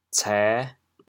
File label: "cê5", chaoyang